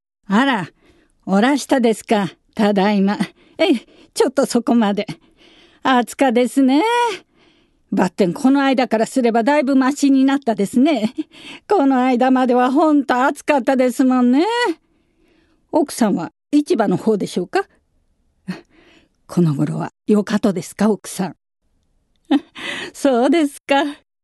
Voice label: sagaben, female voice